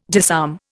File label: "disarm", Indian